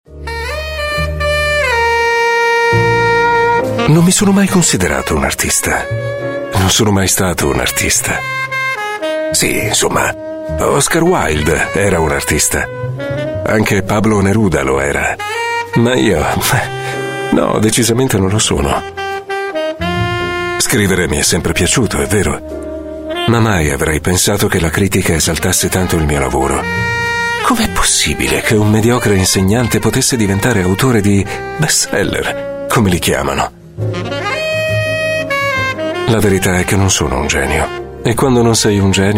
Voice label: italian voice over male audiobook

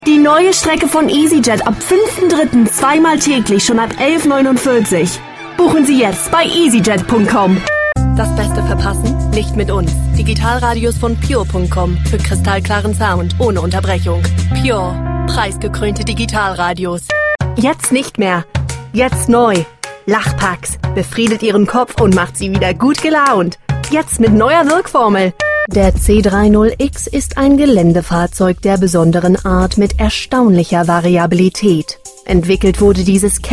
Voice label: germany voice over female commercial

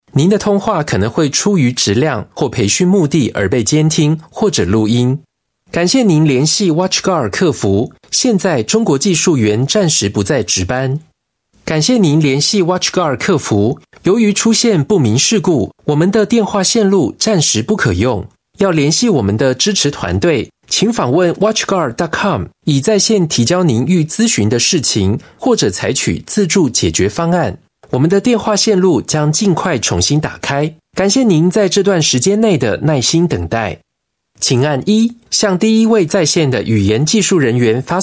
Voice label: chinese voice over male ivr

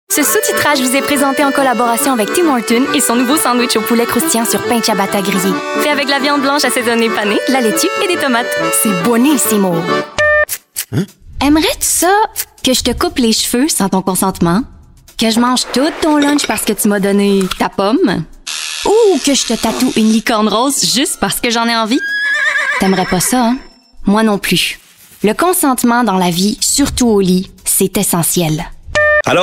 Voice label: french voice over female commercial

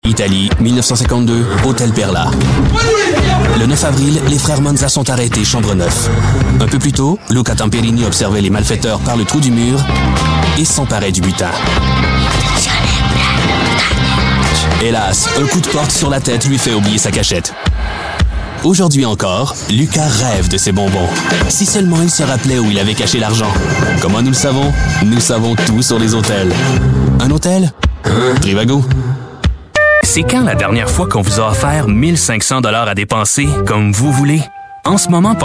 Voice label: french voice over male commercial

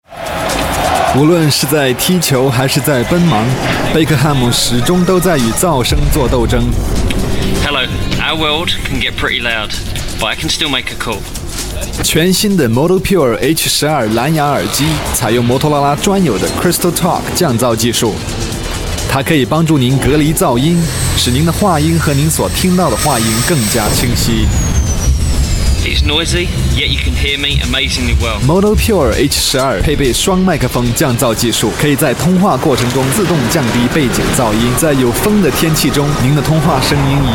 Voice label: chinese voice over male commercial

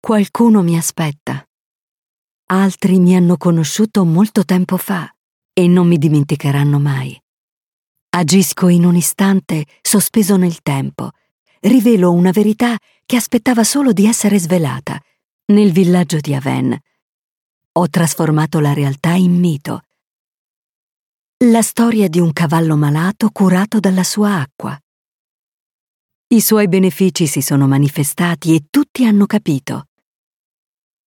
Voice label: italian voice over female narrator

